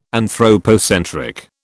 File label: "anthropocentric", British